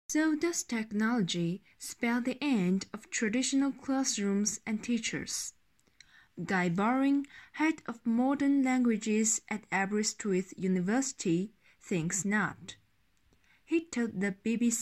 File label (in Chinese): 英语 文段练习; BBC